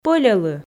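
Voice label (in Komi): "пӧльӧлы", Komi, female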